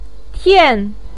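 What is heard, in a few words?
"tian4", Mandarin Chinese, pinyin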